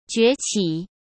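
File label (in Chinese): "崛起", Mandarin; chinese-flashcards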